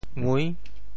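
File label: "ngui~6", Teochew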